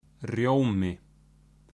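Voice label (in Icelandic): "rjomi", Icelandic; matarhefti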